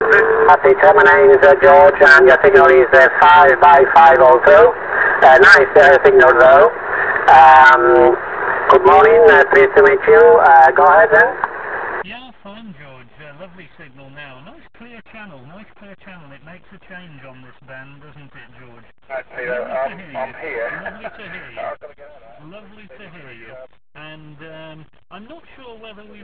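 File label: amateur radio contact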